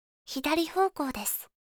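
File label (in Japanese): 左方向です, カーナビ案内音声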